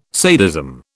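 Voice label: "sadism", British English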